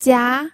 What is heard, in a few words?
"jia2", pinyin audio